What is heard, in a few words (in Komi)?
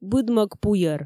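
будмӧг пуйӧр, Komi, female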